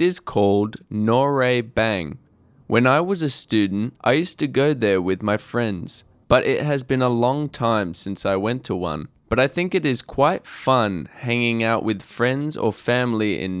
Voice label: Do you like singing, Australia